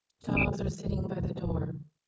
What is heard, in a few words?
neutral anger generated; GAN for speech spectrogram